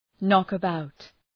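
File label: "knock about", English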